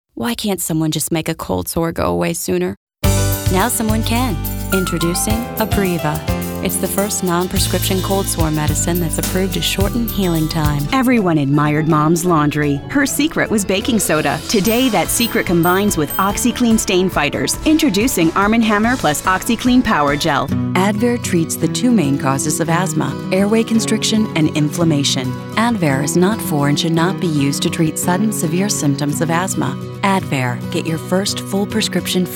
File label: Commercial